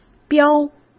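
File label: "标", Mandarin Chinese; chinese flashcards; hsk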